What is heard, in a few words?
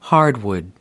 "hardwood", us